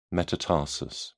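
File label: "metatarsus", English, British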